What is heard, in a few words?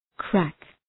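"crack", English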